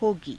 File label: "hogii", Hindi